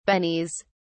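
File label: "bennies", English, British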